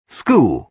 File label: "school", bre